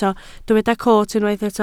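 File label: "coot" Welsh, spoken word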